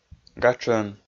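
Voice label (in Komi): "гатшӧн", Komi, male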